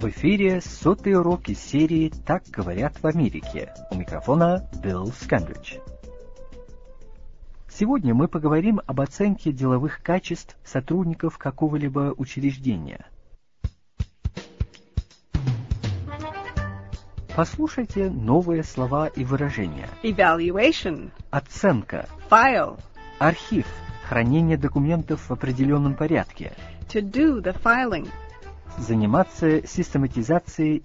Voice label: russian english